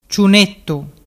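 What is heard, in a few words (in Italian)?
"ciunettu", dialect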